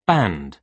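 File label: "band", British English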